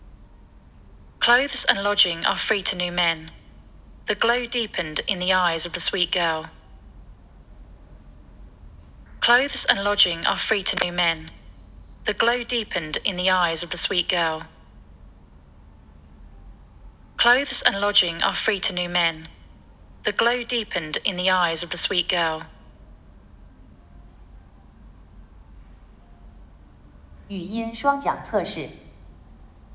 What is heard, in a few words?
aec in near, acoustic echo cancellation; esp32s3